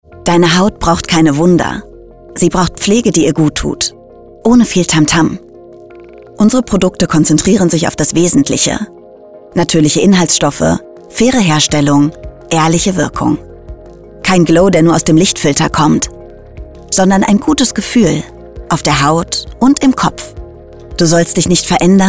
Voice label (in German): Werb Kosmetik